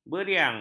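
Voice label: "beriang", Malay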